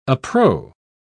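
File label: "appro", British English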